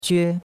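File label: "que1", Chinese; Cours de Chinois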